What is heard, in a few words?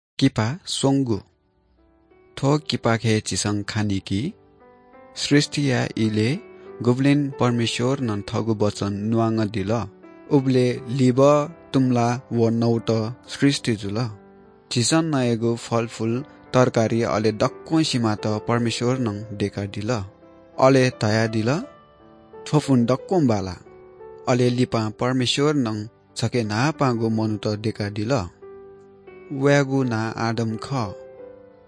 good news newari bhaktapur